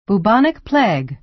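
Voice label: "bubonic plague", American English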